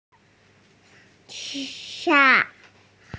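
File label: "chang" Chinese, female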